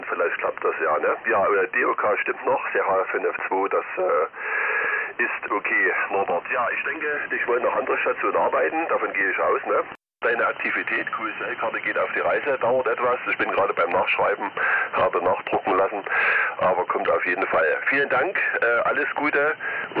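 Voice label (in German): LSB Amateurfunk; 7145khz; CloudIQ; Perseus; Reception in LSB and 3KHz bandwidth; Antenna: ML200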